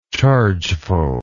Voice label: "chargeful", English

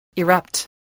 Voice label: "erupt", voice, english

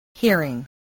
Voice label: "hearing", English, spelling

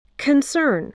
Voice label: "concern", english

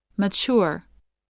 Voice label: "mature", spelling voice

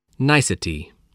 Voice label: "nicety", voice

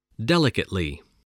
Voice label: "delicately", English

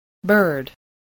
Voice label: "bird", spelling

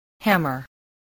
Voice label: "hammer", voice

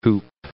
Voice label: "whoop", English

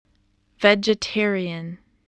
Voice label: "vegetarian", English

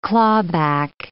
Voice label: "clawback", English

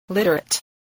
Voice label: "literate", spelling

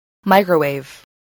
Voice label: "microwave", spelling

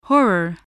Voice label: "horror", spelling

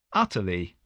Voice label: "utterly", English

